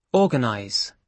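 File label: "organize" English, British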